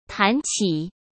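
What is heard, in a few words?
"谈起", Mandarin Chinese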